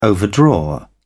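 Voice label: "overdraw", British English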